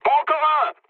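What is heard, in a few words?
player killed enemy, mood low, French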